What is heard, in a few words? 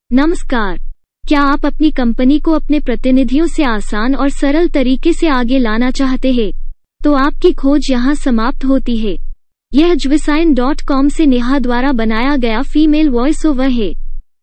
AI Hindi Female Voiceover Sample; Jvesign; Neha